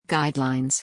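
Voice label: "guide", English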